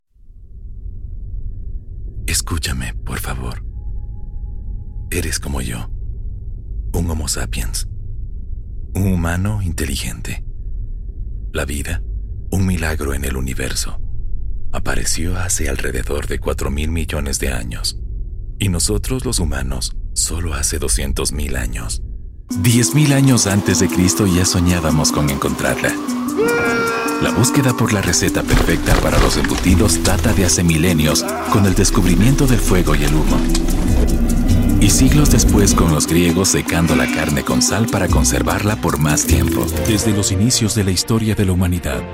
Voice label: spanish latin american narration